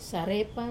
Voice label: "sarepa", dew, wamesa